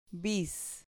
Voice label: "bis", Spanish